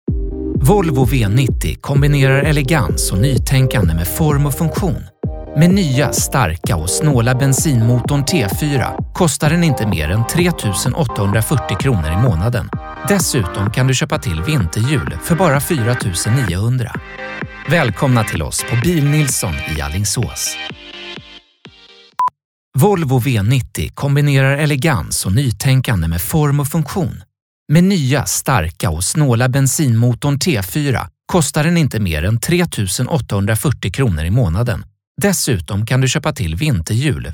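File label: swedish male commercial; voice demos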